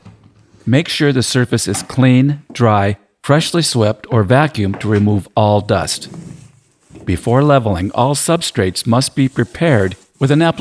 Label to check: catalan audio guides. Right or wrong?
Wrong